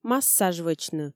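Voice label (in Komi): массаж вӧчны, Komi, female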